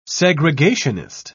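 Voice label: "segregationist", British English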